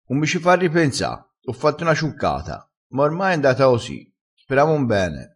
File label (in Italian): "ciuccata", crespinese